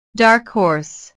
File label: "dark horse", inglés